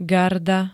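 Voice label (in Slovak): "garda", Slovenské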